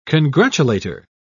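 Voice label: "congratulator", British English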